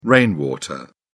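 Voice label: "rainwater", British English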